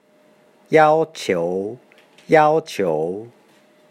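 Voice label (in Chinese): "要求" yaoqiou, Chinese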